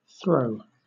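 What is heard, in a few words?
"throe", British English; pronunciations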